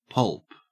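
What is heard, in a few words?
"pulp", Australian English; pronunciations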